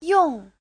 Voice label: "yong4", Chinese

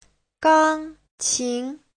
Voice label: "gang1 qin2", chinese; 钢琴; đàn dương cầm

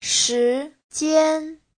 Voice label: "shi2jian1", Chinese

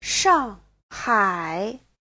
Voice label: "shang4hai3", Chinese

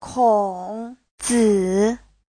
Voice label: "kong3zi3", chinese; 孔子; Khổng Tử

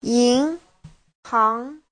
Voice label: "yin2hang2", Chinese

